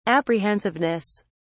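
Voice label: "apprehensiveness", British English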